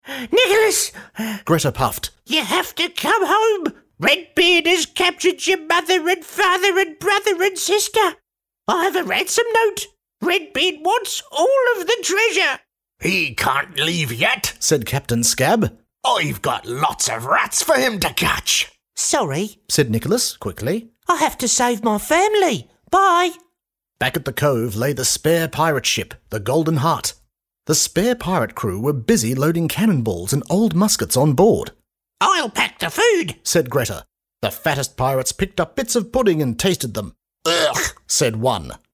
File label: Kids eBook Character